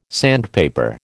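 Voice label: "sandpaper", American English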